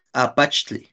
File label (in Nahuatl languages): "apaxtli", Náhuatl; palma(s); palm(s)